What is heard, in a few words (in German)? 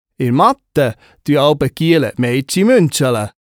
Stimme, Bern